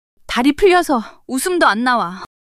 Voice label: fluster; monologue